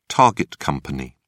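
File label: "target company", British English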